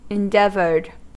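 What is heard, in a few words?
"endeavored", US English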